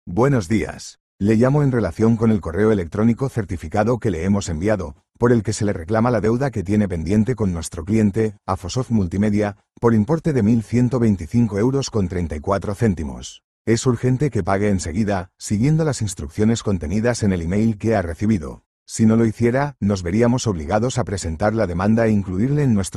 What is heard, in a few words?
audio call